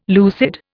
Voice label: "lucid", Indian